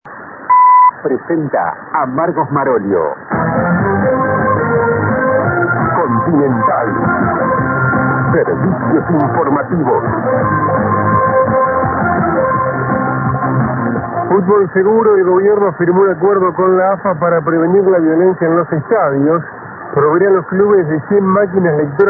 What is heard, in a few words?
Radio; Radio Continental